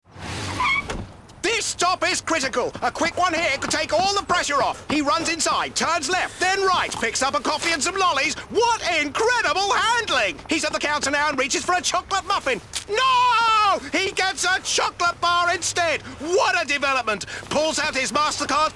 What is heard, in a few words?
Radio NZ; MasterCard Contactless